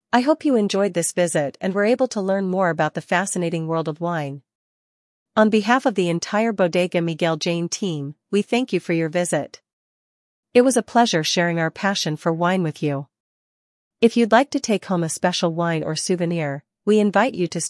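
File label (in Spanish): saludos, English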